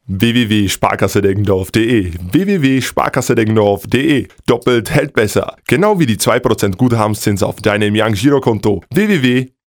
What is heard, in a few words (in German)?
Werbung Reminder; Sparkasse